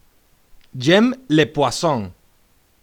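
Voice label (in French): "j'aime", French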